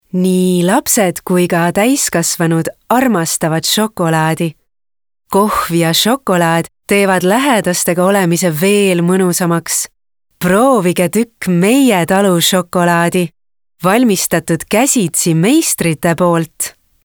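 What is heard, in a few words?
Estonian Female Sweet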